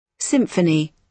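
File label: "symphony", British English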